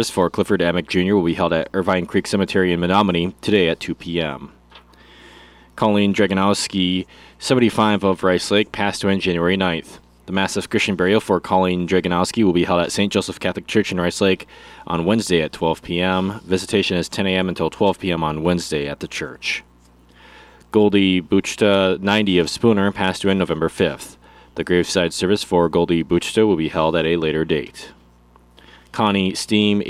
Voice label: Funeral Announcements